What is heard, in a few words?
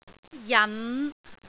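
"jan5", Cantonese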